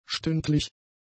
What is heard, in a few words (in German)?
"stündlich", German; adjectives